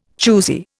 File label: "choosy", Indian